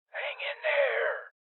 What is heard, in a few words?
Voices Heal Male Old; rebel cops 2019